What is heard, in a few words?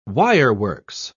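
"wireworks", English, British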